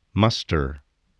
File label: "muster", voice